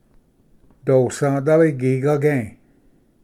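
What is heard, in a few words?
"dosvdali gigage", Cherokee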